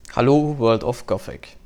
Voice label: neewer mic sample